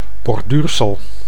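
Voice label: "borduursel", Dutch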